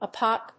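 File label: "a pop", English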